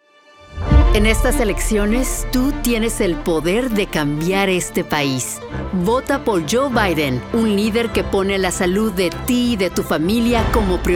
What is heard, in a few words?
spanish political